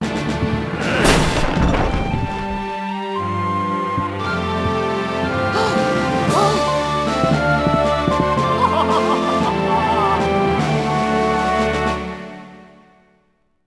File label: lost relative fm; Sid Meiers Pirates; XBOX